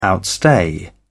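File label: "outstay", English, British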